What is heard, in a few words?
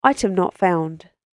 Item Not Found British Female Voice, recorded voice; human voice; spoken word; Andrew Fleming